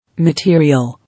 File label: "Material", English